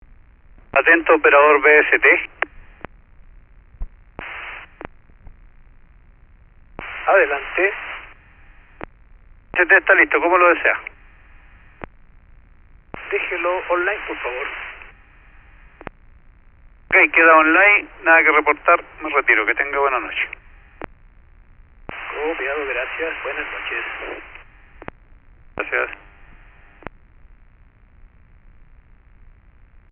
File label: paranal radio VST handover, mono